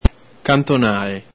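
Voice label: "cantonale", Veneto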